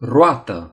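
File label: "wheel", Romanian; gcompris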